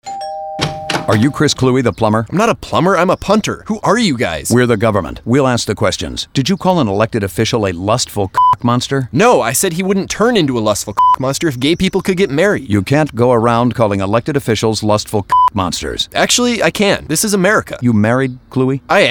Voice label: radio; just vote no